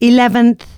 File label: announcements female